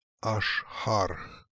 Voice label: "Ashhark", Beelzebub pronounciations; Fourth Way; RUS

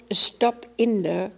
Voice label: "Stopinder", pronounciations; Fourth Way; Beelzebub

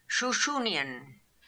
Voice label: "Shooshoonian", pronounciations; Fourth Way; Beelzebub

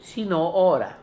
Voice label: "Sino ora", pronounciations; Fourth Way; Beelzebub

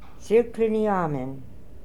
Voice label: "Sirkliniamen", pronounciations; Fourth Way; Beelzebub

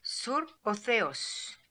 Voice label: "Surp Otheos", pronounciations; Fourth Way; Beelzebub

